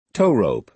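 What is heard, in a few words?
"towrope", American English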